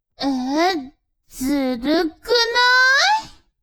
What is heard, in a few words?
woman